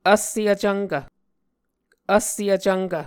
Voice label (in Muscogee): "vsse vcvnkv", Muscogee